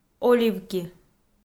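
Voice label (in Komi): "оливки", Komi, female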